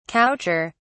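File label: "coucher", British English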